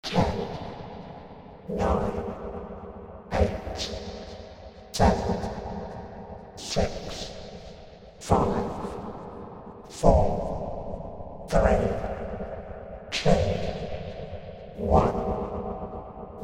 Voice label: Robot Rocket Countdown Droid, science fiction sound effect